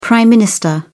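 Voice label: "Prime Minister", British English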